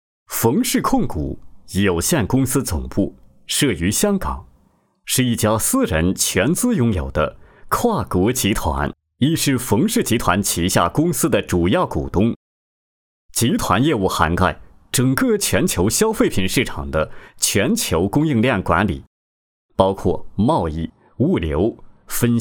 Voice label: 男, 企业宣传; 冯氏控股

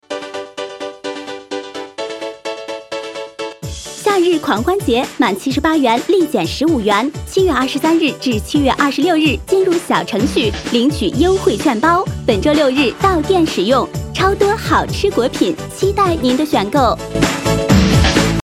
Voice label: 女-广告-语速快-音乐; 百果园

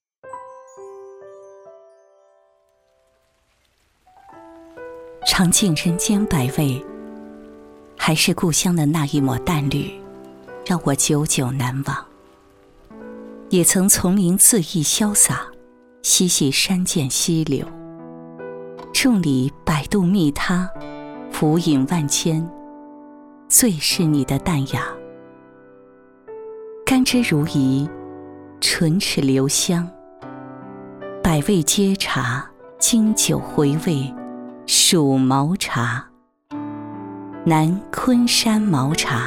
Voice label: 女 独白 讲述 茶

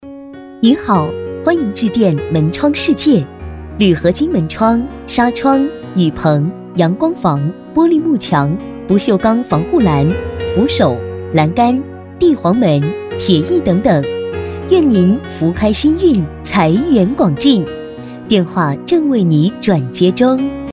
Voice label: 女, 彩铃; 门窗世界

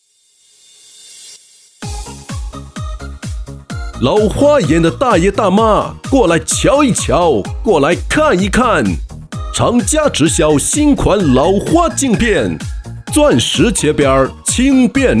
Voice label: 男 促销 激情力度; 老花镜片

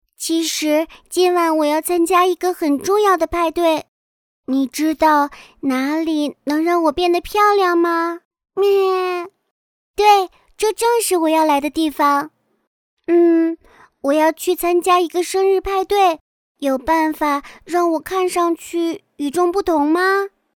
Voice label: 女 温柔 女童

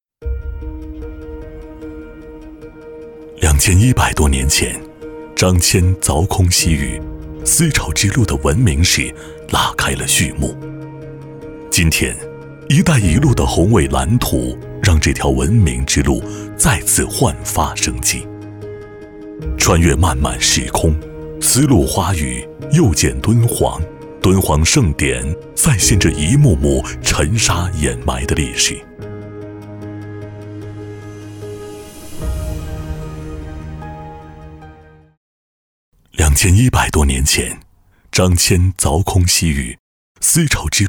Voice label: 男 旁白 讲述文明 文化会展

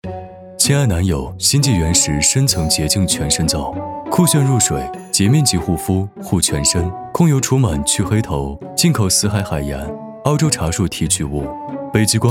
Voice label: 男 广告 磁性品质; 亲爱男友清全身皂

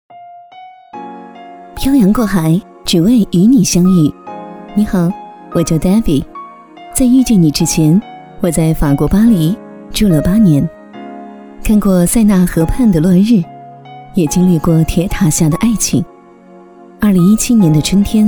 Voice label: 女 房产宣传 微电影 短

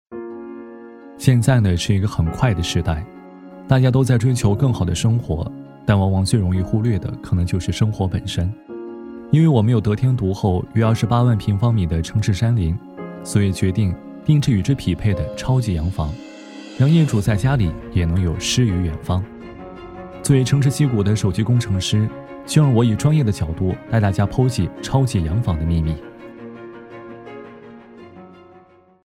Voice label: 男 年轻素人音; 超级洋房

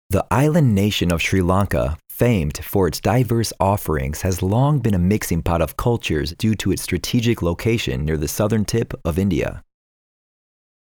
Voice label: 外籍英男 纪录片; 斯里兰卡

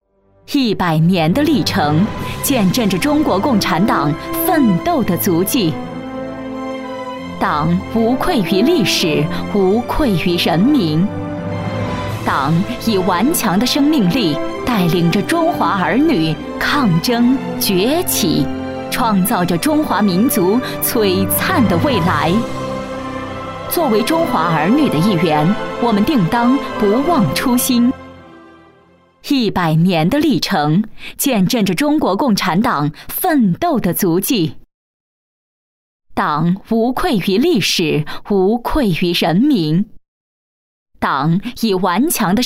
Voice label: 女 专题

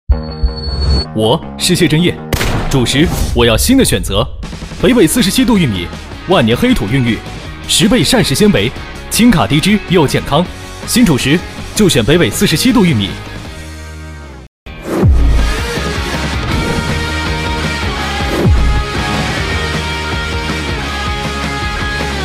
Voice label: 男, 对口型 tvc; 北纬47°玉米

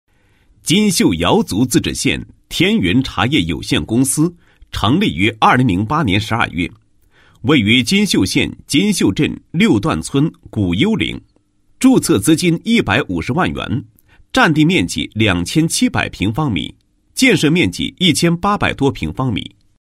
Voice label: 男 企业; 天云茶业有限公司

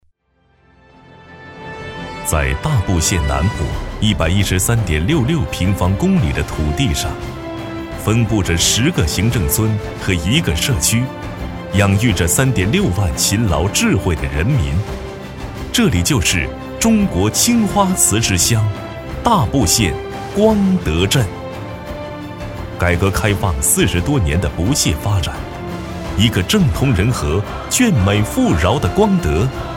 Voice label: 男 大气浑厚; 大埔县光德镇

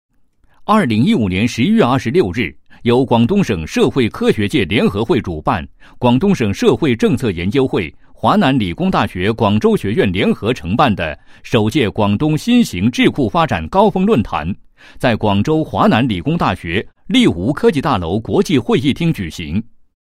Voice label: 男 新闻; 新型智库发展高峰论坛

